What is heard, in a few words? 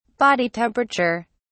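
"body temperature", British English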